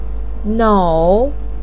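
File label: "nou" third tone, Mandarin Chinese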